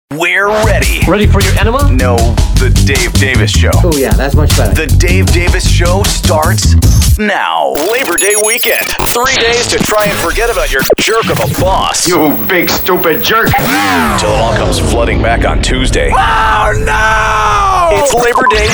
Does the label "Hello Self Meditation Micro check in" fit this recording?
No